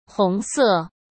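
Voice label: "红色", Mandarin Chinese; hsk; chinese-flashcards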